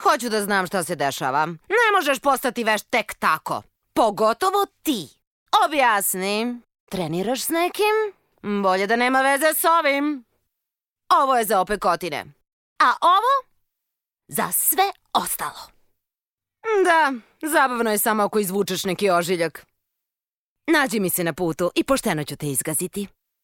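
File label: Serbian female character; voice demos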